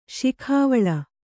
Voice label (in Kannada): "ಶಿಖಾವಳ", Kannada